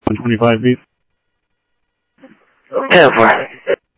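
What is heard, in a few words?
Sheriff East County, scanner audio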